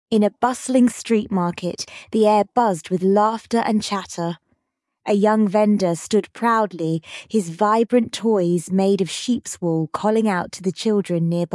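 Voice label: female British story; Young Lambs to Sell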